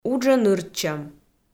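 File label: "уджӧ нырччӧм", Komi, female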